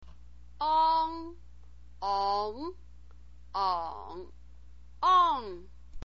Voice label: "ang", chinese pinyin

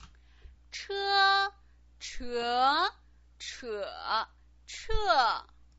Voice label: "che", Chinese pinyin

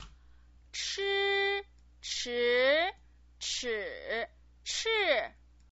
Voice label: "chi", Chinese pinyin

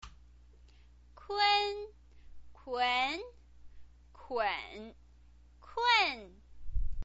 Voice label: "kun", chinese pinyin

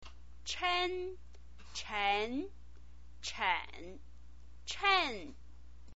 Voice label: "chen", chinese pinyin

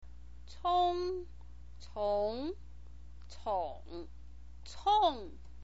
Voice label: "cong", chinese pinyin

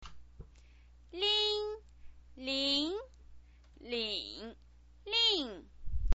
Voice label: "lin", chinese pinyin

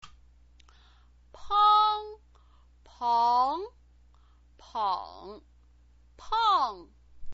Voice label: "pang", chinese pinyin

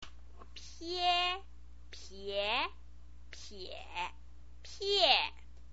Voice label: "pie", chinese pinyin

